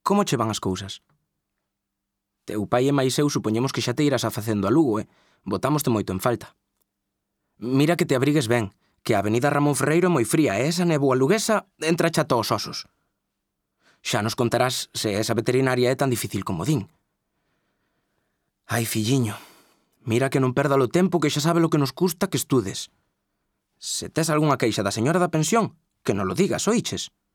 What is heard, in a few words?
Galician; VOICES